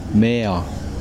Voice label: "ocean", Kosraean